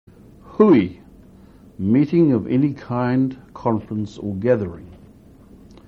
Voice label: "hui", Maori